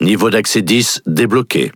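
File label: French Speech Access Level; World Of Warships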